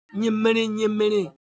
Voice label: Siwu Motion